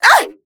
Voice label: pain; Dark Masquerade Qitara